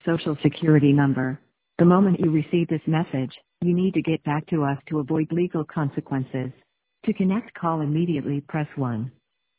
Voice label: voicemail